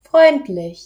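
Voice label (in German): "freundlich", deutsch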